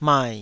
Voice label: "mai3", Taiwanese